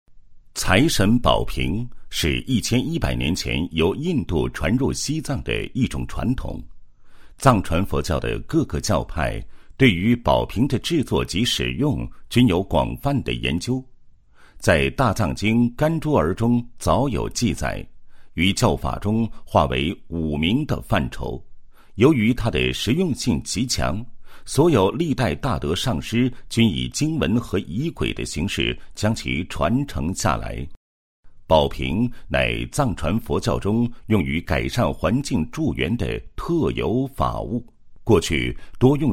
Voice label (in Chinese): 男 广告 宣传; 南山龙五爷财神殿财神宝瓶宣传